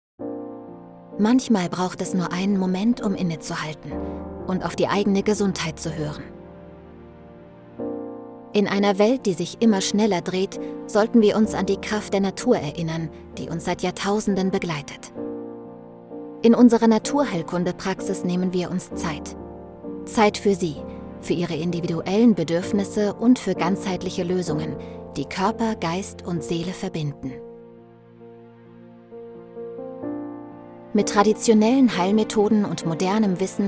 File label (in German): Imagefilm Naturheilpraxis "sanft, einfühlsam, verbindlich", Commercial, German